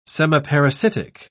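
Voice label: "semiparasitic", English, British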